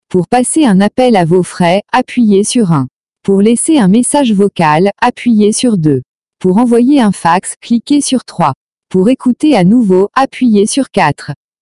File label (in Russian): меню фр